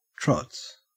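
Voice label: "trots", Australian English; pronunciations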